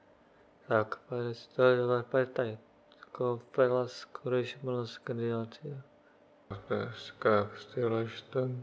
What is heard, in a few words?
dialog; Mafie 1 cz